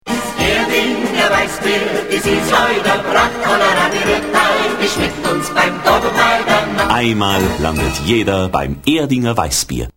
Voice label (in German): werbung; Erdinger Werbespot 1